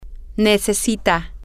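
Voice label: he needs, spanish, female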